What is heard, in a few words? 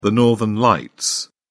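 "northern lights", British English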